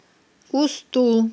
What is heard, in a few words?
"усттул", Aghul